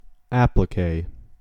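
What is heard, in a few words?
"applique", US English; pronunciations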